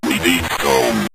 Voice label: we need stone, synth voice; Kenney's Sound Pack